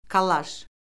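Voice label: "kollaj", Azerbaijani; Orfoqrafiya Lüğəti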